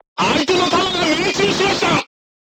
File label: voice message player tank hit, crew tanks japanese